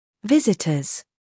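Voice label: "humor", British; visitors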